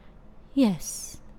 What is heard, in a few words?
"Yes", human male evil; customnpcs